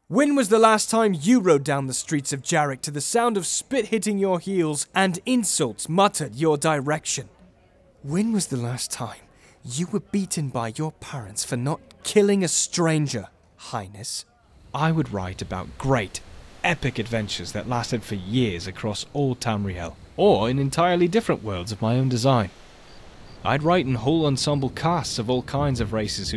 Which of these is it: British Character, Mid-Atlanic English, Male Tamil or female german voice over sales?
British Character